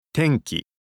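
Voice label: "tenki", Japanese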